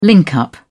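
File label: "link up", British English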